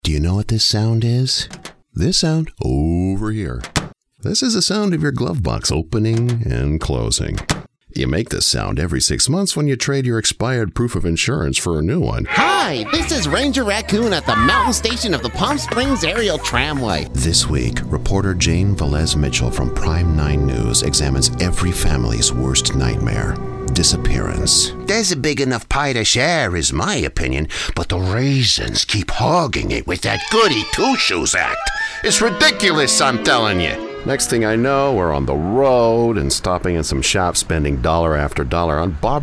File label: Commercial